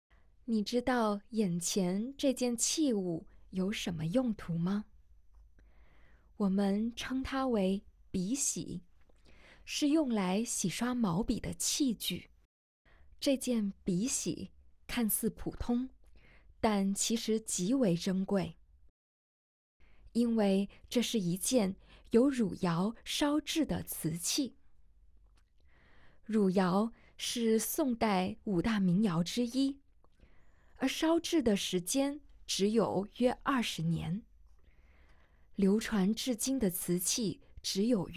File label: Putonghua